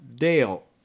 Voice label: "dale", Minimal Pairs; Rhymes ail